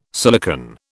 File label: "silicon", British